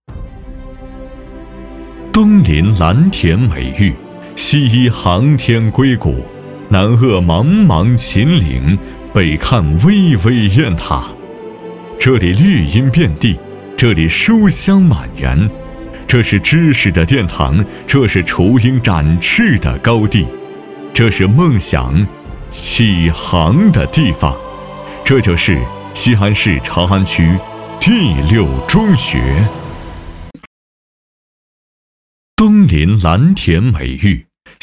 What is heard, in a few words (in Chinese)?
男 宣传片; 水玉缘